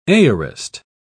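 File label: "aorist", British English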